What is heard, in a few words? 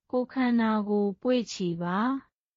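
Myanmar, burmese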